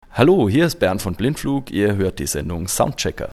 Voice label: Station ID; Soundchecker